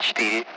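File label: Speech Russian; Allied Flight; Digits; DCS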